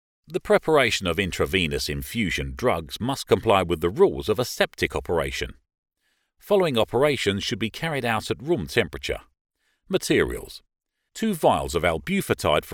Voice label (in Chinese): 静脉输注药物的制备 旁白词